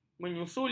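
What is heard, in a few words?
"menyusuli", Malay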